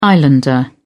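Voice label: "islander", British English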